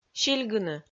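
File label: "сильгыны", Komi, female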